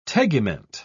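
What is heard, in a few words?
"tegument", British English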